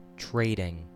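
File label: "trading", US English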